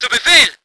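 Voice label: voice german vehicle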